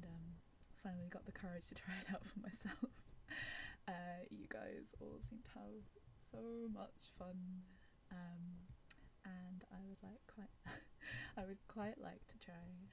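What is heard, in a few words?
Female verification; GWA Verification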